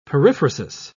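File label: "periphrasis", British English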